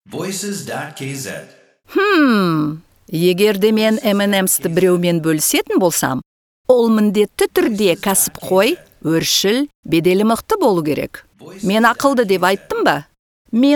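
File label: Kazakh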